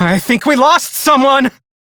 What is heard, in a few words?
Crew Died, Male; Dialog; Warframe; Railjack Crew; Perrin Sequence